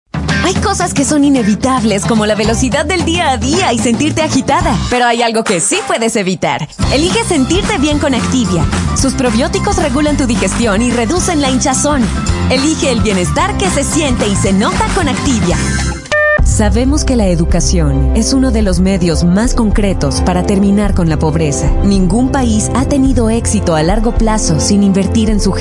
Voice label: spanish voice over female commercial